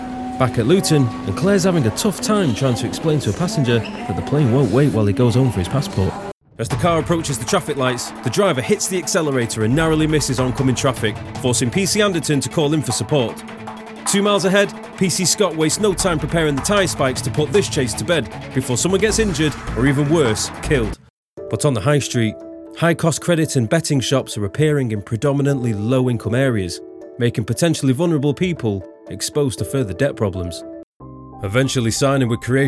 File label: narration